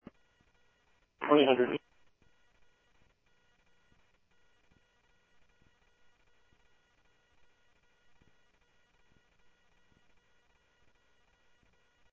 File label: scanner audio trunk; ASU; ASU Park 1